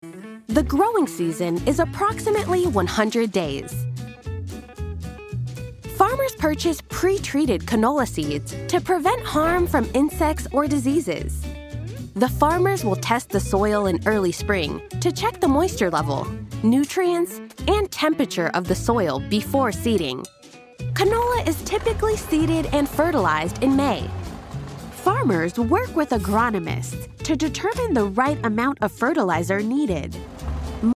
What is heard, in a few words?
english american animation